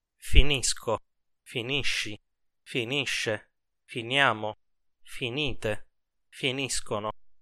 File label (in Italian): finire coniugazione, Italian